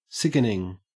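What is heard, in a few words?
"sickening", Australian English; pronunciations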